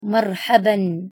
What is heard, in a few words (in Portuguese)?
pronuncia de oi arabic